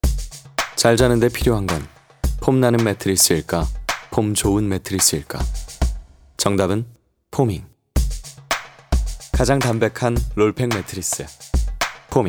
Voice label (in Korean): 광고 건조함 쉬크함; 포밍매트리스